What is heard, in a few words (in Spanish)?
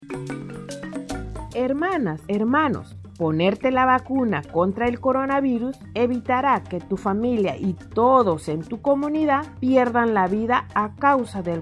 castellano localidades afroperuanas; vacunate sin miedo contra el coronavirus